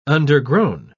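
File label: "undergrown", English, British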